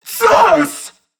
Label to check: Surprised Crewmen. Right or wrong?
Right